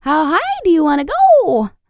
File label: FEM SPOKEN; ILIO; Vocal Planet VOL-4; R&B & Dance